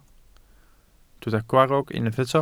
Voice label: "gwarrog", Welsh